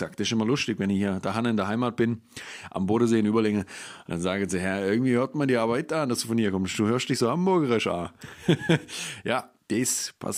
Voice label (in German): Badisch